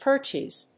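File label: "Perches", English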